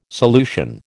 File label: "solution", American